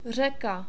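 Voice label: "reka" Czech, male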